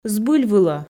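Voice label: "збыльвылӧ", Komi, female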